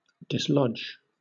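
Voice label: "dislodge", British English; pronunciations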